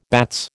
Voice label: "bats", American